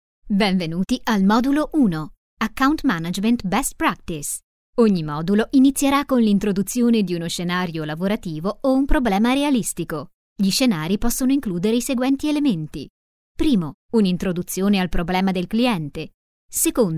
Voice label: corporate italian